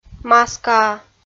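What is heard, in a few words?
"маскаа", Komi, female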